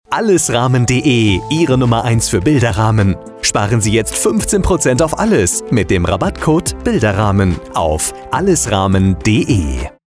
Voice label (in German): Radiospot; 943 RS2